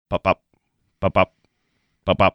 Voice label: recreating hardley davidson engine sound

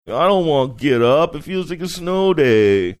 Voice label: saying i dont wanna get up funny

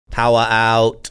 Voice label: australian accent; power out

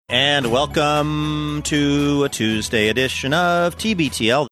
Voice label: saying and welcome to tuesday edition singsongy; of tbtl

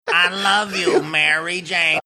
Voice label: saying i love you mary jane funny manner

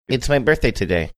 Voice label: saying its my birthday today funny

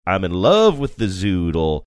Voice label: saying im in love with zoodle sing songy

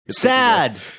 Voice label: sad

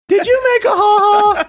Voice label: saying did you make hah hah high pitched voice

